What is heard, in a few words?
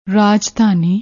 "raajdhaani", Punjabi